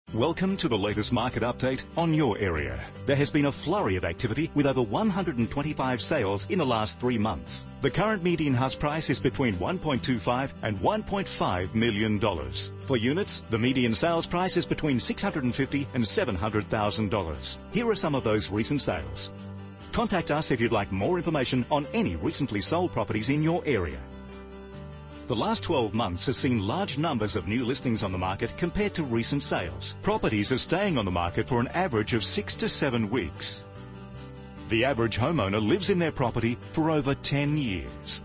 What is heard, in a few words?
voiceover